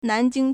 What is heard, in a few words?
"nan2jing1", Chinese